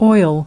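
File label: "oil", English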